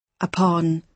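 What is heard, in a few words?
"upon", British English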